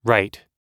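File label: "write", English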